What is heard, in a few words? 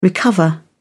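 "recover", British English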